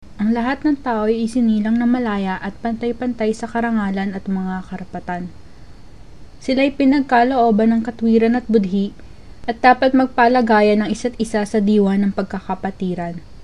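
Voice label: Tagalog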